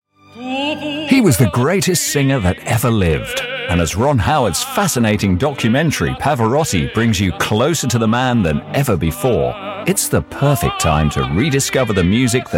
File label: commercials, Warm and Sincere Male Voice